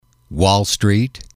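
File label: "wall street", English, male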